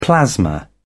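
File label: "plasma", British English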